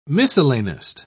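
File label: "miscellanist", British English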